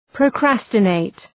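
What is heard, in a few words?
"procrastinate", English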